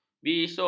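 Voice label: "bisul", Malay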